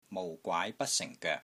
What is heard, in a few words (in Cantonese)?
"MOU4 GWAAI2 BAT1 SING4 GOEK3", Wing Chun; Wing Chun Elements Glossary